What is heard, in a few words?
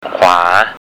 "kwaa", Thai